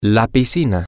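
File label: the pool, Spanish, male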